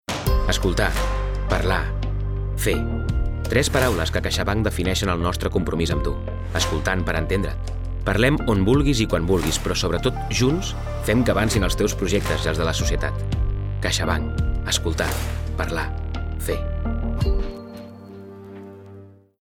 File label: male catalan comercial; ideasonora